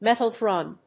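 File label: "metal drum", English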